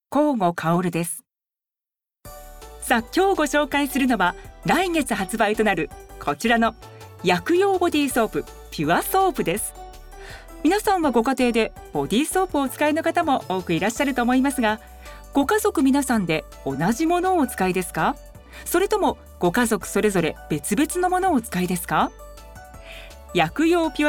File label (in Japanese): 通販商品紹介「薬用ソープ」